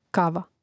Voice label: "kava", Hrvatski; Hrvatski mrežni rječnik; Mrežnik

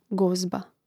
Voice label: "gozba", hrvatski; mreznik

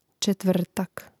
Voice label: "četvrtak", Hrvatski

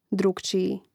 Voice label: "drukciji", Hrvatski; mreznik

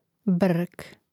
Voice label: "brk", Hrvatski

